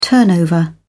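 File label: "turnover", British English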